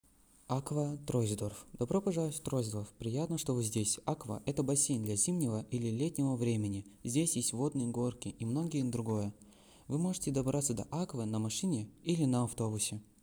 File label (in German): Russisch; AGGUA Troisdorf